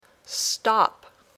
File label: "stop", English